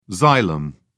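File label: "xylem", British English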